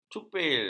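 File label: "cupil", Malay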